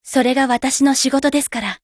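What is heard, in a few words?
WIN, Japanese; GF Alarm; Claes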